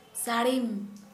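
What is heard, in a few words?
Santali female roof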